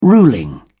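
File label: "ruling", English, British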